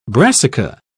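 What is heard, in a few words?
"brassica", British English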